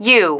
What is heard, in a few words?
"you", female